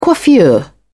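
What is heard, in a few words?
"coiffure", British English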